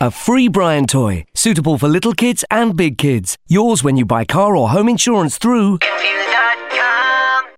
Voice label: Insurance Commercial; Aviva

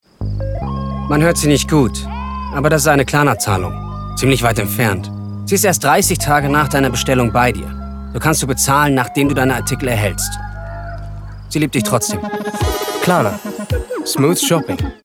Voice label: German; KLARNA

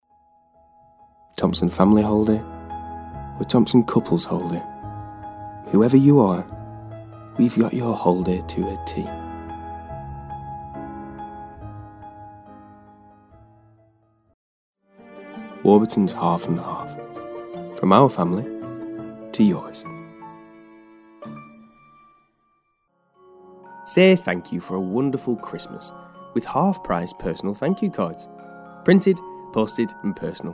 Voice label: Commercial Reel

